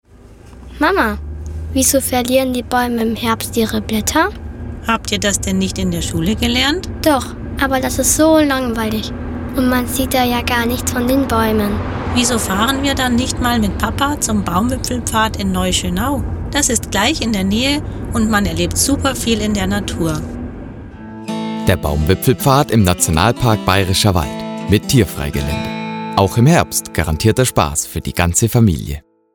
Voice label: Werbung; Erlebnis Akademie

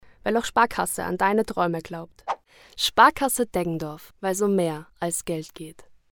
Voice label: Werbung Reminder; Sparkasse

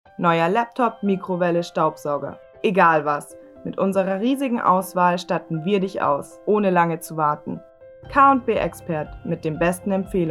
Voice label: Werbung Reminder; K+B Expert

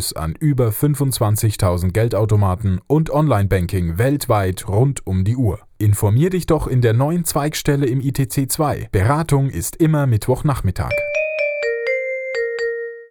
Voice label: Werbung Sparkasse

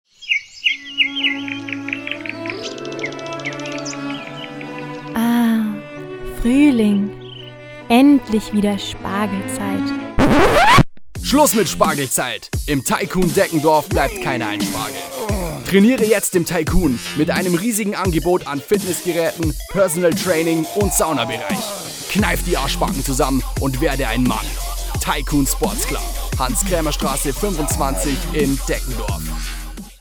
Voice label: Werbung Fruehling; Tycoon

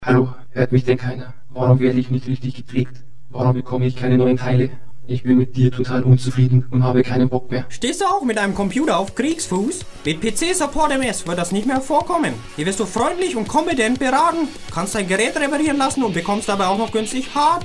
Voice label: Werbung

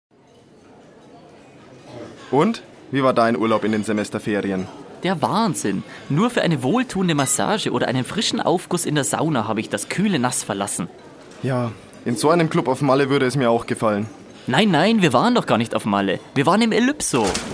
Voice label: Werbung; Elypso

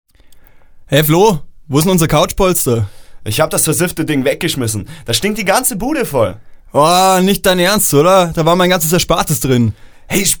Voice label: Werbung Sparkasse

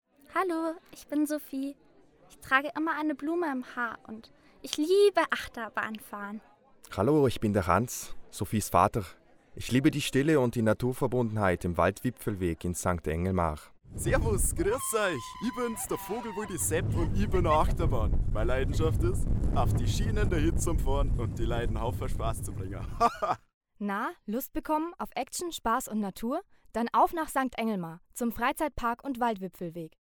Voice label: Werbung; Freizeitspassmacher St Englmar

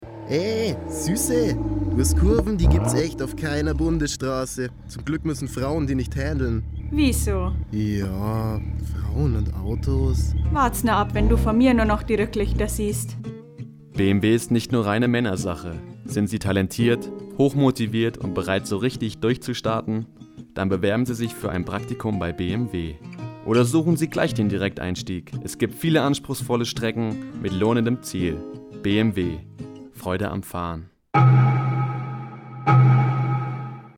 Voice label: Werbung mit Logo

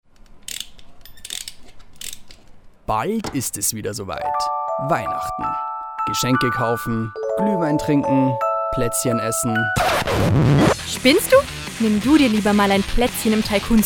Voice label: Werbung Weihnachten; Tycoon

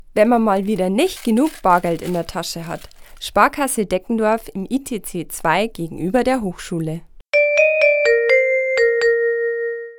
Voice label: Werbung Reminder; Sparkasse

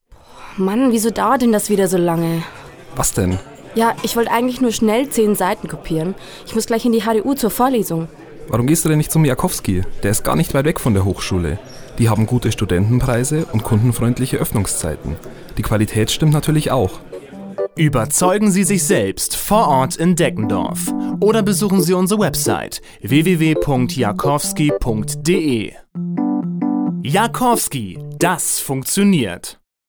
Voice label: Werbung

